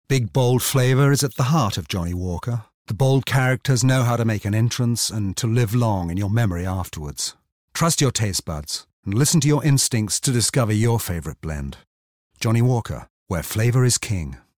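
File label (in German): British englisch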